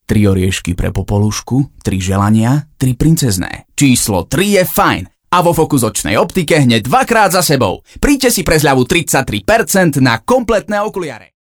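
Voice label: Slovak, male, commercial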